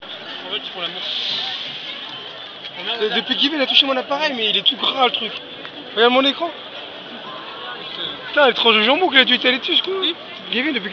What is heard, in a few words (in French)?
Monologue